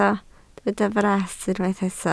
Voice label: "fras", Welsh

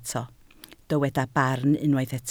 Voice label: "barn", Welsh

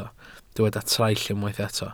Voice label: "traill", Welsh

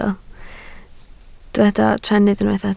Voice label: "trennydd", Welsh

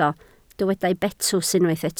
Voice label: "betws", Welsh

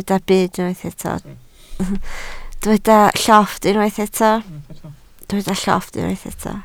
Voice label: "llofft", Welsh

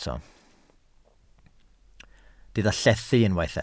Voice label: "llethu", Welsh

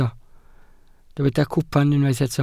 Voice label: "cwpan", Welsh

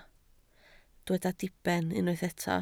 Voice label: "diben", Welsh

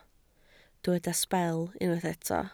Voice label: "sbel", Welsh

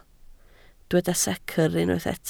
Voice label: "secr", Welsh

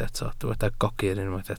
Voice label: "gogr", Welsh

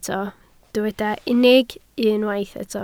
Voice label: "unig", Welsh

